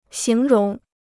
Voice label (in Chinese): "形容", Chinese